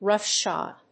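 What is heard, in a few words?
"roughshod", English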